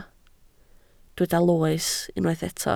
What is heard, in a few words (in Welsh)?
"loes", Welsh